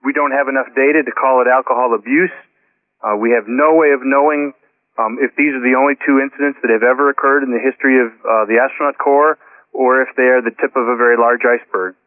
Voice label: LoFi Phrases, LoFi Vocals; Vengeance Samples